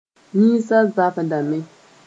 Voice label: "yĩnsa zabdame", Mooré

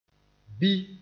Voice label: "bi", mos; measles

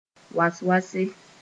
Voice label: "was-wase", Mooré; quickly

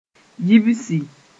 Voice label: "yibsi", mos-en; forget

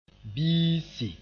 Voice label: "biisi", moore

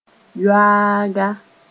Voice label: "yoaaga", Mooré

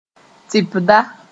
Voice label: "tɩpda", moore

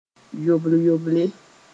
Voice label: "yobl-yoble", Mooré

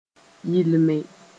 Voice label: "yɩlme", Mooré; repeat the action

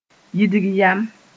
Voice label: "yidg yam", mos-en; disgust

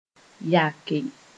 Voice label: "yake", Moore

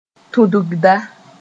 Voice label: "tudgda", moore

